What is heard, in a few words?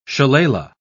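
"shillelagh", British English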